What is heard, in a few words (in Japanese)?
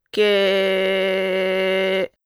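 "け", Japanese syllable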